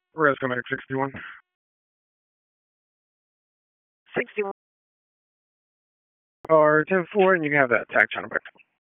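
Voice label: EMS Dispatch, p25; wake p25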